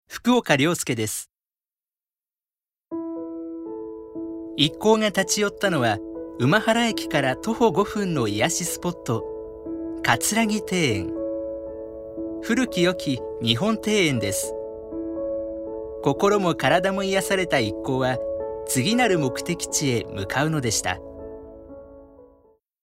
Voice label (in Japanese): ノーマル